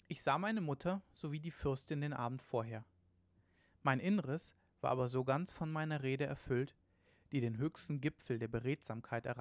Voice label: male synthconv 250ms src

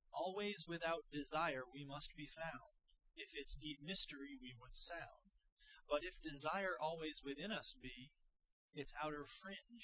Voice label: male inst sim

